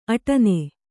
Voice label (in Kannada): "ಆಟೀನ್", Kannada